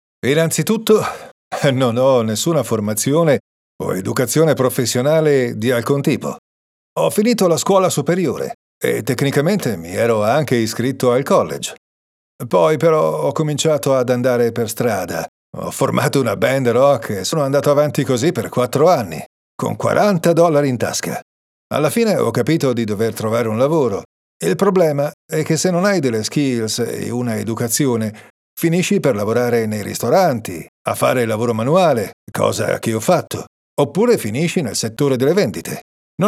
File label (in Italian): Voiceover documentario